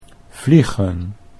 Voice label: "vliegen", Dutch

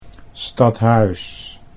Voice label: "stad HUIS", Dutch

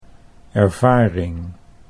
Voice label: "ervaring", Dutch

